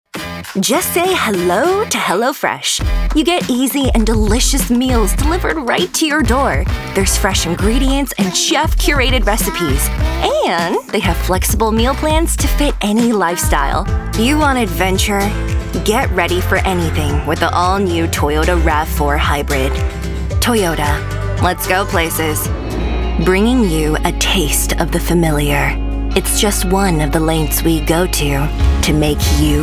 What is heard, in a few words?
Commercial